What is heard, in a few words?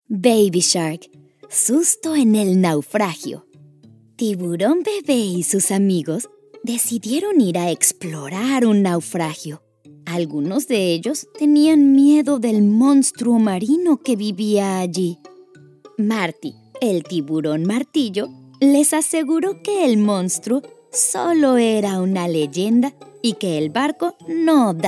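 Spanish Voice Over, Stories for Young Kids; eLearning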